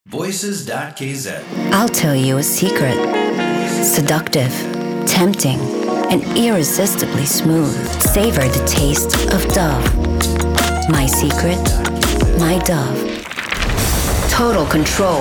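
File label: english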